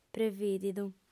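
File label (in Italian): "prevìdidu", lingua sarda, fem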